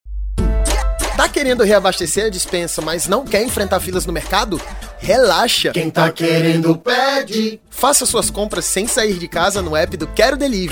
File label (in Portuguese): voz da marca